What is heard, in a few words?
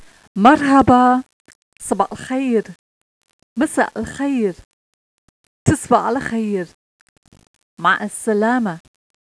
arabi "marhaba"